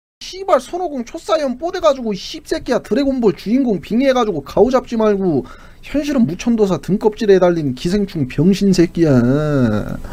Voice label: scout cheers